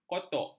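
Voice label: "kotok", Malay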